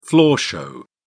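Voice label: "floor show", British English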